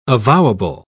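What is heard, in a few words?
"avowable", British English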